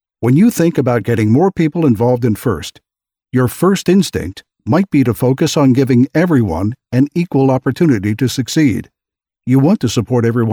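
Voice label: eLearning Male, Relaxed, Convincing, Mature; Narration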